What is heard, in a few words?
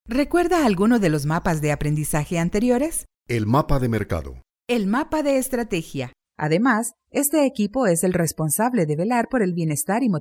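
Spanish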